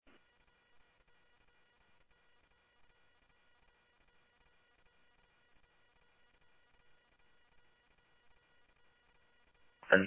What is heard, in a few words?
Scottsdale Police, scanner audio